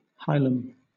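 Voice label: "hilum", British English; pronunciations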